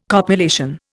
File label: "copulation", Indian